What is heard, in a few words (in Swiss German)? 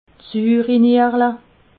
"suurenierle", alsace